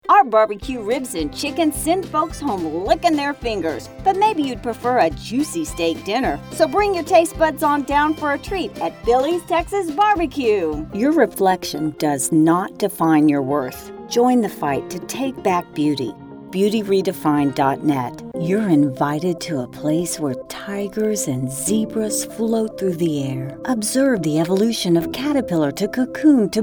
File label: Commercial